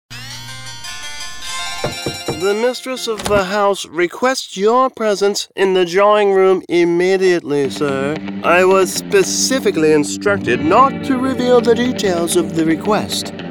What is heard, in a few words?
Character